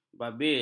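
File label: "babil", Malay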